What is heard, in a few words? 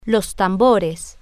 "los tambores", spanish